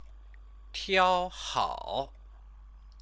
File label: "tiaohao", Chinese